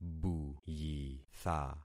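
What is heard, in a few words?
"buyitha", concatenated syllables; Affixing experiment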